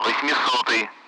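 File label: Speech, Russian, 8th; Allied Flight; DCS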